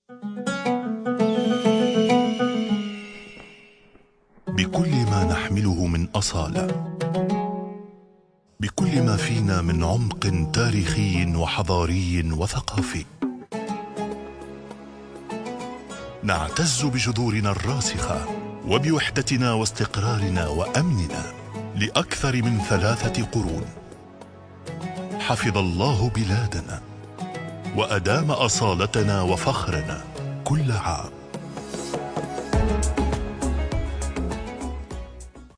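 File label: Arabic voice over Documentaries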